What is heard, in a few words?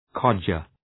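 "codger", English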